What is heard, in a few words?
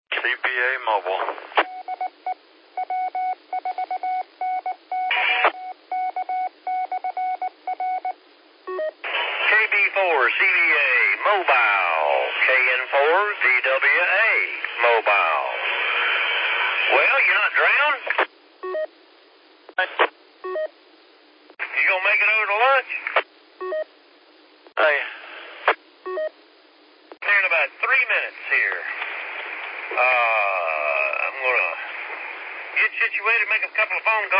qso rec simplex logic